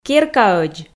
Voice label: "керкаӧдз", Komi